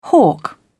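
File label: "hawk", British English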